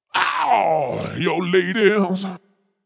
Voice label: Vocal Deep, Funk vocal loop sample.